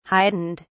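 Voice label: "heightened", British English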